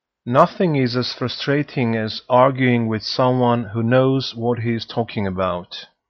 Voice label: arguing